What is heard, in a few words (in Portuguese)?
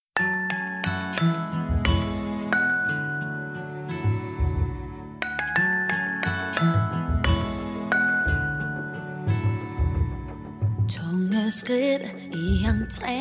Aniversário Colega de Trabalho Mulher Feminino; Aniversários; Aniversários diversos